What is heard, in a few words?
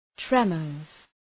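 "tremors", English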